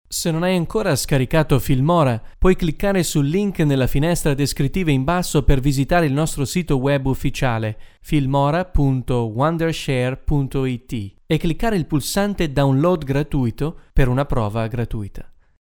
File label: Italian